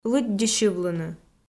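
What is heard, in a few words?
"лыддьыссьывлыны", Komi, female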